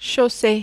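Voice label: "chaussure", French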